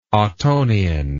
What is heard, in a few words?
"ottonian", English